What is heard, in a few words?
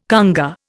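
"congé", Indian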